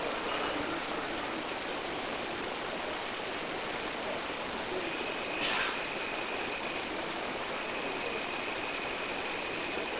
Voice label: Unexplained low male whisper inaudible